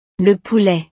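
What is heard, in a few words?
"le poulet", French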